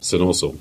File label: "St Austell", Cornish; parish; place names